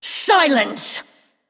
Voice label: Female Undead; Anduin

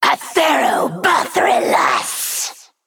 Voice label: Female Naga Hero Power; Darkscale

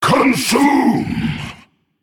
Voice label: Male Undead; Monstrosity; Cariel

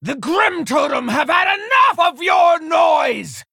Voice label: Female Tauren Play

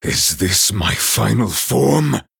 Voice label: Male Night Elf Start

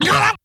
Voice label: Male Death; Goren

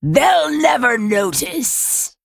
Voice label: Female Worgen Play

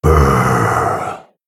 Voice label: Male Human greetings

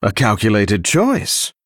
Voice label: Male Dragon Recruit Medium Minion

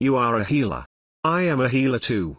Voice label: Male Tauren; Guff; Scabbs

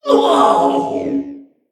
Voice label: Nonbinary Mech Death

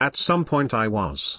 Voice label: Male Night Elf; Story Hero Kurtrus; BOM Scabbs Mission9 Exchange

